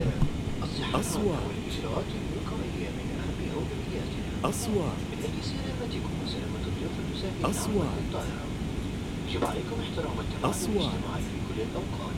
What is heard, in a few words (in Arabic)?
إعلان هبوط الطائرة; airplane ambience sound